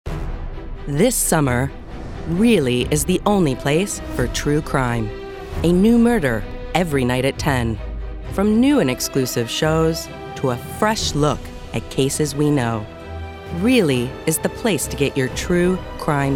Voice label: Promo